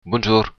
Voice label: good morning, occitan